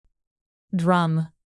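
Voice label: "drum", English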